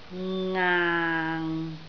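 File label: "ngaang6", Cantonese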